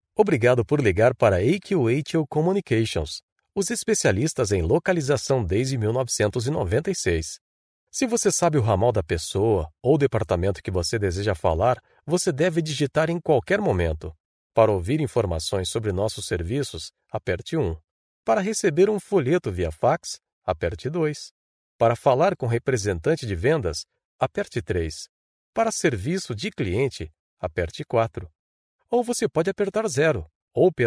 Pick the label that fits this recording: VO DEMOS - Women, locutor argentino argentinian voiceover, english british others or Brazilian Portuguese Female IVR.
Brazilian Portuguese Female IVR